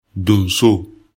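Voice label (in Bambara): "donso", Bambara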